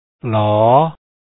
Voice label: l sound, lao